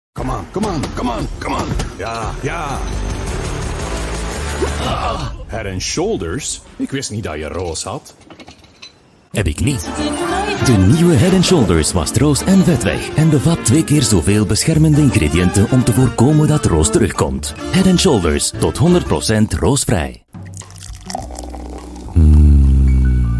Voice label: flemish commercial

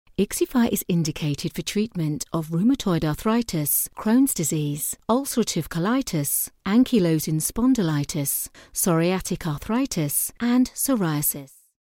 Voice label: explainer video; pfizer

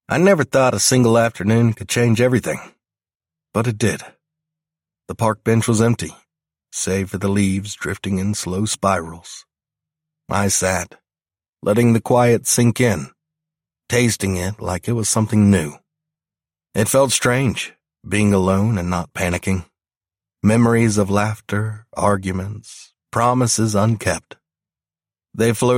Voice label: audiobook drama emotional

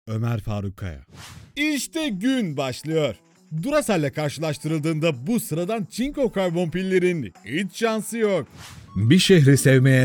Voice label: voiceover

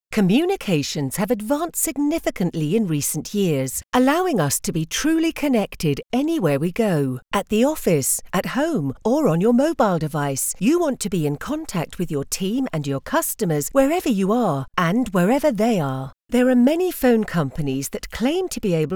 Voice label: narration

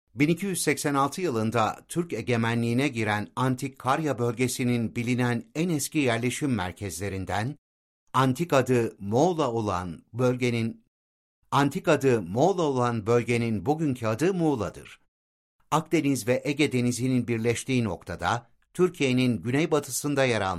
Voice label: documentary

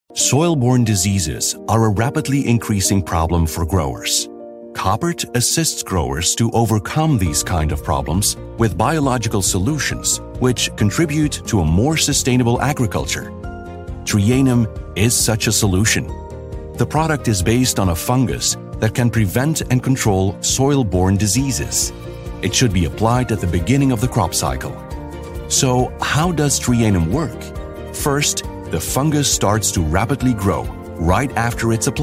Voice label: english corporate calm; koppert trianum

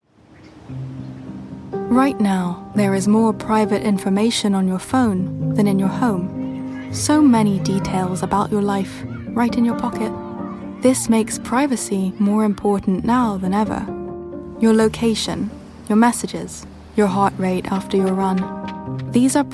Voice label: uk, English - England; iphone

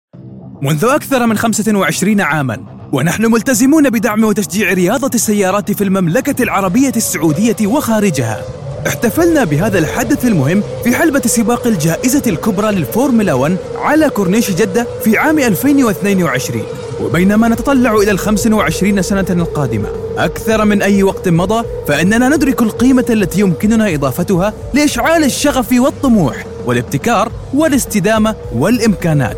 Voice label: sports commentary formula1

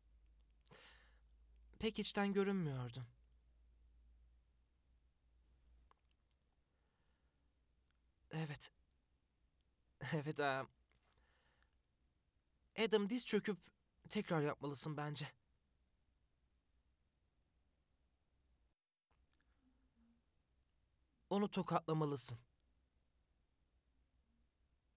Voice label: calm

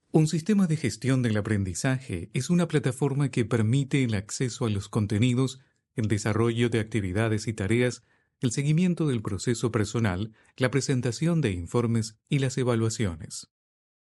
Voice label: elearning es neutro

